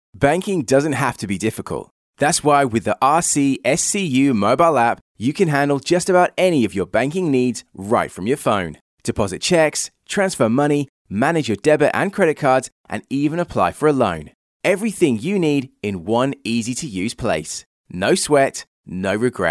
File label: Professional